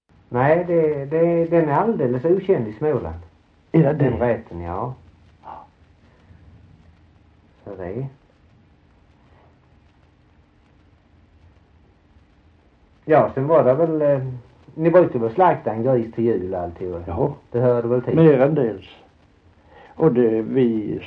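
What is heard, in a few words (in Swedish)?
Holm Halland, dialekter; Folkminnen; Svenska sagor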